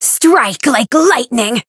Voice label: variable chant; hisumena